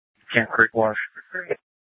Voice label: Sheriff, scanner P25; Shrf Lakes

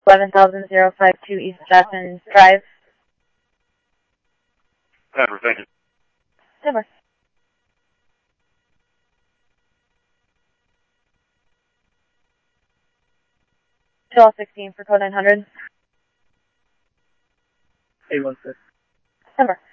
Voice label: Scottsdale Police, scanner audio

